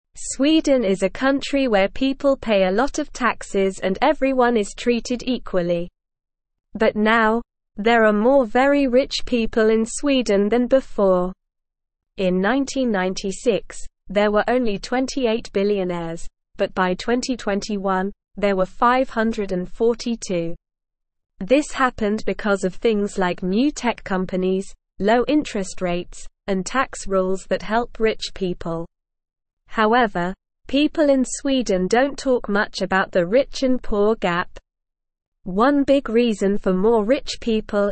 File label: English Beginner SLOW Reading; More Rich People in Sweden Why and How